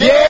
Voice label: "yeah", group of men